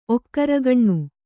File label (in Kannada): "ಒಕ್ಕರಗಣ್ಣು", Kannada